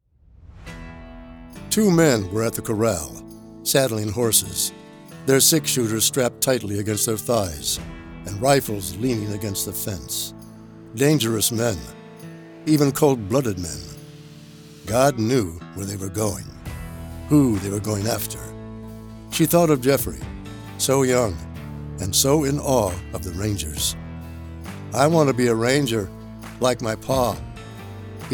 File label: WITH MUSIC; DEFIANT PART 2